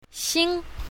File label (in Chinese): "xing1", pinyin south